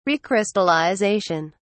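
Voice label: "recrystallization", British English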